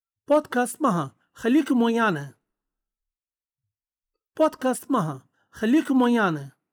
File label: podcast